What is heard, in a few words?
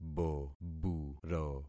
"boburo", concat syllables; Affixing experiment